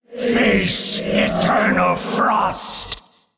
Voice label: Male Elder God Emote Knockout